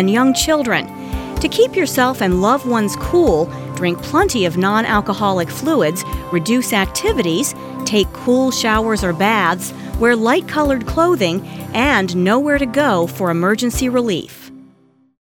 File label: psa disaster extreme heat power failures; disasters